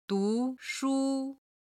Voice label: "du2shu1", Chinese

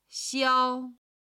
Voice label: "xiao1", chinese

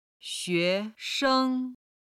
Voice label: "xue2sheng1", chinese

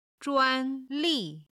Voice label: "zhuan1 li4", Chinese

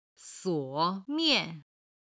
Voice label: "suo3mian4", Chinese

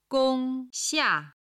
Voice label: "gong1xia4", chinese

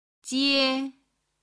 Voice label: "jie1", chinese

